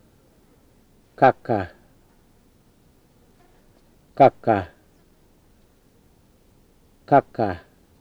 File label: "kaka", Murrinh-Patha; kɑkɑ